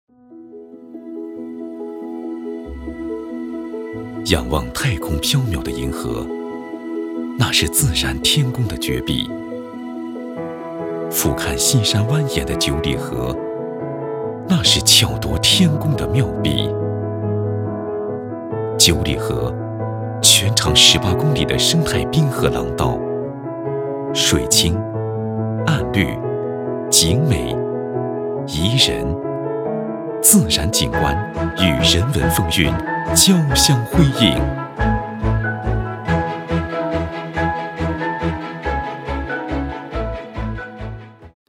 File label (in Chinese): 男 景区宣传; 九里河